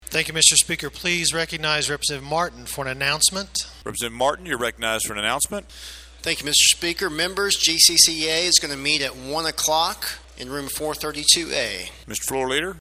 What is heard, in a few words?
Announcement